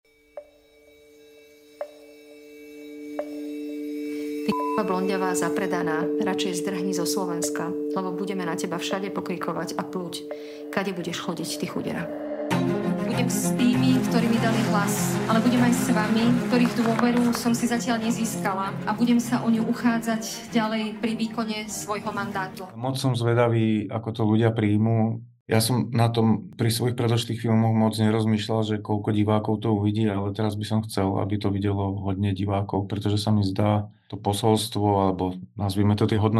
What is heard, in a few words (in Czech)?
upoutavka; Prezidentka